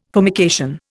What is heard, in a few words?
"formication", Indian